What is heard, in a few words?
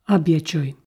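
"абъячой", Komi, male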